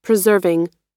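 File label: "preserving", American English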